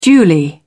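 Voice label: "duly", British English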